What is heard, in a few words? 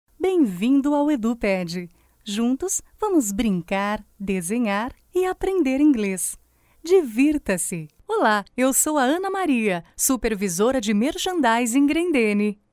locutora brasil brazilian voiceover, voz nativa de Brasil; Locucao brasileira